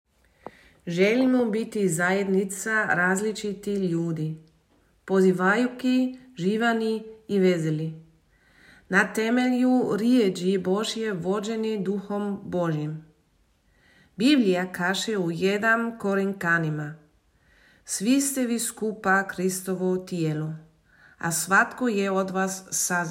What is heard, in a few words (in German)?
Kroatisch; Wir möchten eine Gemeinschaft